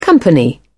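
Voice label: "company", British English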